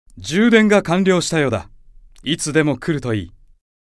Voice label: AP Notification Voice; Diavolo